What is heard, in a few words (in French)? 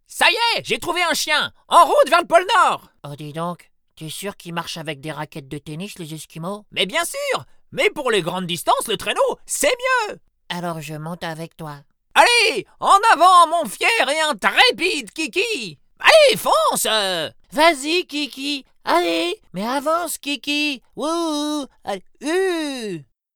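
cartoon, voix off masculine; Je peux adopter tous les styles avec ma voix off masculine naturelle, posée, souriante ou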